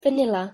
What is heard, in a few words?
"vanilla", transparent stressed syllable